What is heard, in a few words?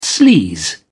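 "sleaze", British English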